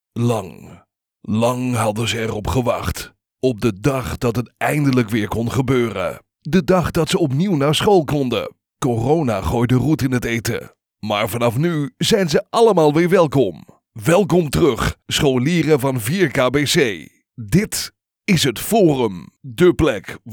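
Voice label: Radio TV Imaging Voix off néerlandais Niederländische Stimme Locutor holandés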